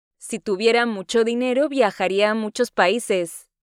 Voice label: Spanish Listening; Spanish Test